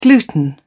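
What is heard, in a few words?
"gluten", English, British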